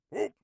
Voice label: hup, orc voice; Orc Voice Pack